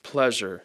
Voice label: "pleasure", English